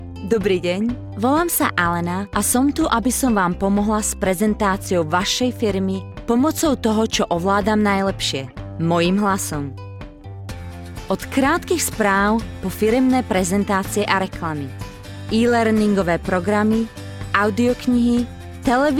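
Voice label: Slovak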